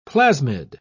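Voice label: "plasmid", British English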